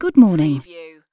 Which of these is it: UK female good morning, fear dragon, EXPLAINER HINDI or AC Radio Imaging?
UK female good morning